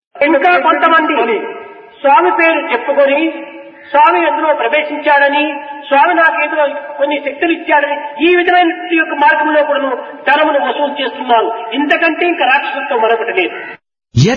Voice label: RETRANSLATION ENGLISH